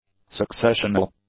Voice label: "successional", English; adjectives